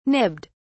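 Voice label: "nibbed", British English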